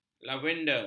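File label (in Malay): "lavender", Malay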